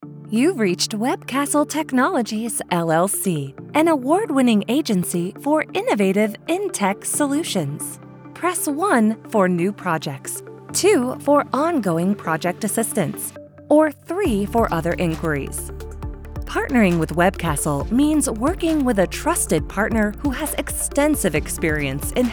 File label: Telephony Sample; WebCastle Technologies